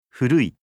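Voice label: "furui", Japanese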